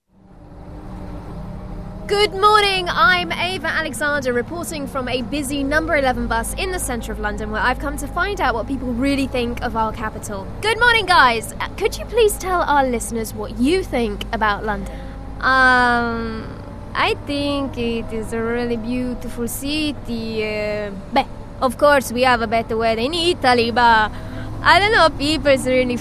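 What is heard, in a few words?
Italian English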